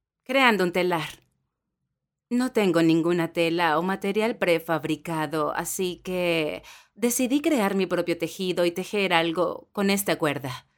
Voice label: locutora latinoamericana spanish american voiceover; locutoras latinoamericanas